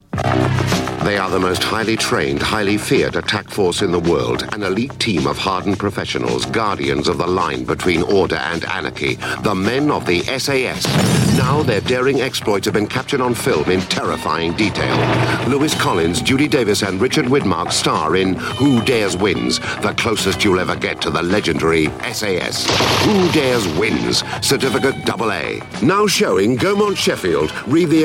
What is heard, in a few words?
Radio Advert; Who Dares Wins; Radio Hallam